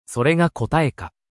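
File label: anime, Japanese, Hilarious Anime Quotes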